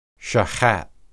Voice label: "shahath", Hebrew